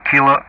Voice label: "Kilo", ENG; Ka-50; DCS

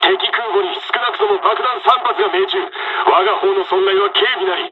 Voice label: dialog, dialogs japanese; War Thunder

